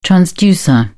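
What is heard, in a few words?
"transducer", British English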